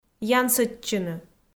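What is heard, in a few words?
"янсӧдчыны", Komi, female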